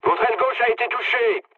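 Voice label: French left wing mood low; War Thunder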